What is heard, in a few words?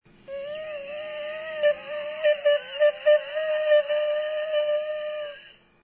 Chatter